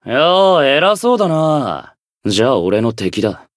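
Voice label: encount fear, Japanese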